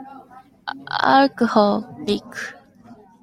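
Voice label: "alcoholic", Japanese, female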